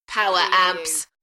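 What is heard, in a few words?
British female Power amps; beanstalk audio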